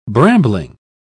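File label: "brambling", British English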